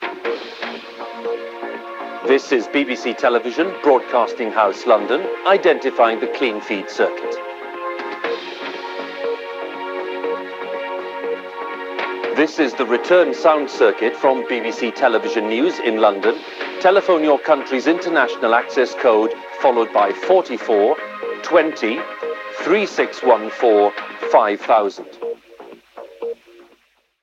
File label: BBC television London ident; Huw Edwards